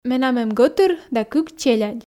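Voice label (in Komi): менам эм гӧтыр да кык челядь, Komi, female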